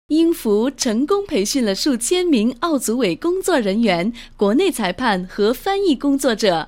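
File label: Chinese narration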